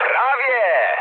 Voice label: bomb failure mood med, speech pol; War Thunder